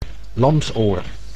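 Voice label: "lamsoor", Dutch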